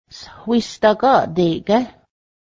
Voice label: do you have a lot of money, Cayuga